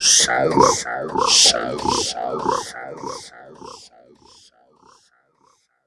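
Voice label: effects talk, Funky Vocals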